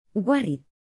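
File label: "worried", English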